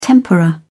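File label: "tempera", British English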